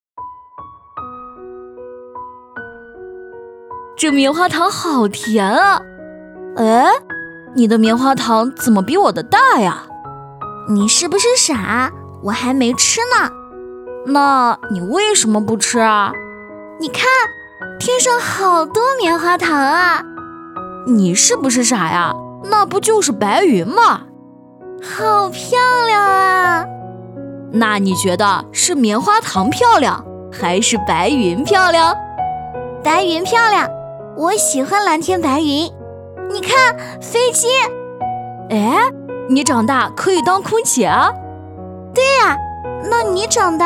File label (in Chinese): 男童女童温馨